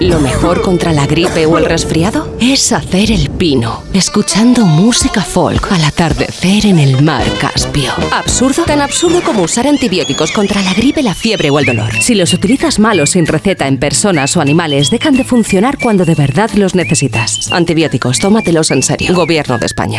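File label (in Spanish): campana absurdo cuna spanish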